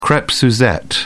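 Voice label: "crepe suzette", British English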